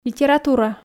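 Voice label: "литература", Komi, female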